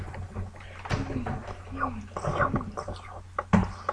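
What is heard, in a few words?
"lick", spoken word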